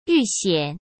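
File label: "遇险", Mandarin Chinese; chinese-flashcards; hsk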